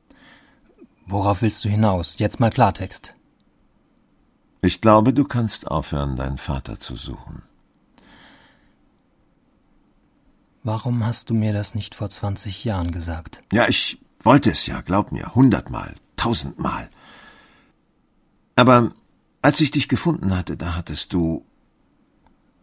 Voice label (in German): Hoerspiel